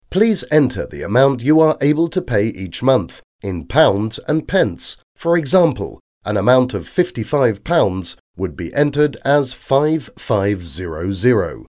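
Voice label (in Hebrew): English, קריינות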